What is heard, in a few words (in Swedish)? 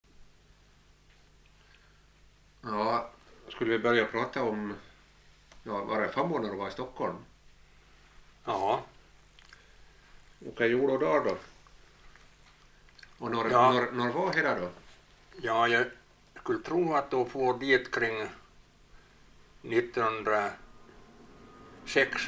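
Boda Dalarna, dialekter; Folkminnen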